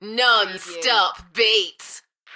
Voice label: UK female, "Non-stop beats", Enthusiastic; beanstalk audio

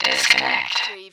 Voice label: Robot voice "disconnect"; lynne publishing

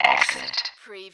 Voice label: Robot voice "exit"; lynne publishing

